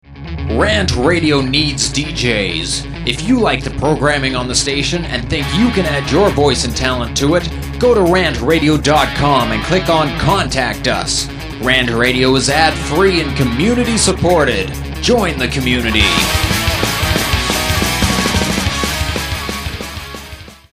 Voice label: Promo punk psa; RantRadio; Station Promos-In House